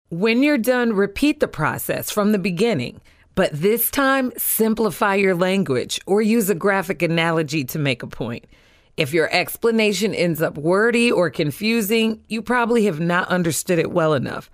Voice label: Narrartion